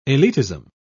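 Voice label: "elitism", British English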